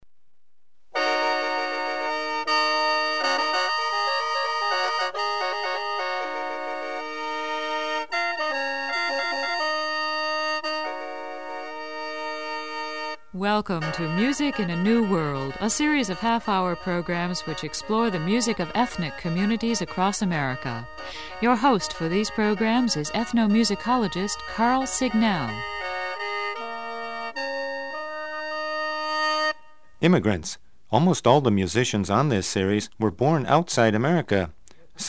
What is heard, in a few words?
Greek